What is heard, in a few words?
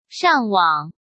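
"上网", Mandarin Chinese; chinese-flashcards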